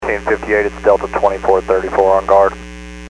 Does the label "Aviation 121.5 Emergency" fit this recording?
Yes